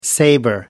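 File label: "saber", British English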